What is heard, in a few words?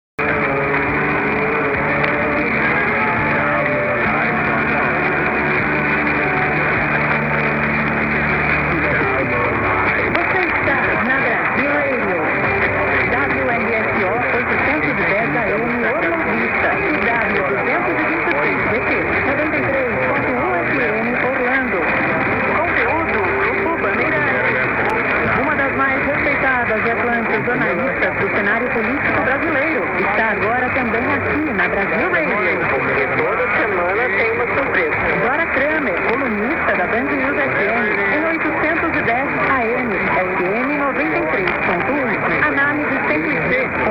Brasil Radio; 810 USA WRSO; Orlo Vista, FL 0557 (PT)